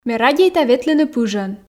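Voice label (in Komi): ме радейта ветлыны пыжӧн, Komi, female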